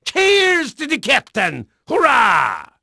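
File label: Dutch male, voice; Pirates Of The Caribbean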